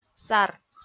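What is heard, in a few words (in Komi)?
"сар", Komi, female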